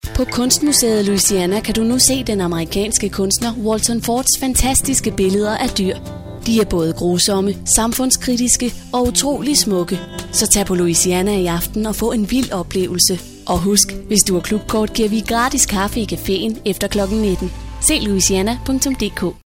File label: radio spot